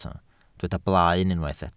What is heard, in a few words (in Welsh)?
"blaen", Welsh